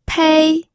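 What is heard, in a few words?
"pei", Mandarin Chinese, first tone; pinyin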